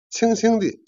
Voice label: "jian", Chinese